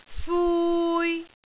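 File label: "fui3", Cantonese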